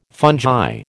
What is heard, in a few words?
"fungi", American